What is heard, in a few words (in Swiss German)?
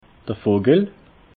"vogel", alsace